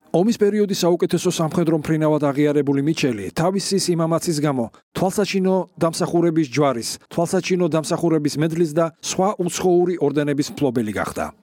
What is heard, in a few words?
Georgian